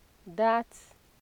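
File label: "dat", Nigerian Pidgin, female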